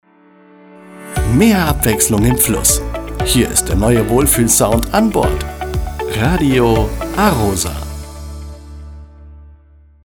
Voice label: Radio Station ID male; Radio A-ROSA